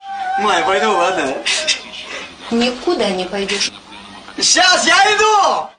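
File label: "I'm coming right now", Russian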